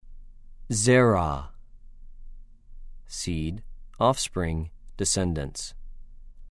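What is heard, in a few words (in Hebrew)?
"זֶ֫רַע", Hebrew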